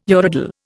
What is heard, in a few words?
"urodele", Indian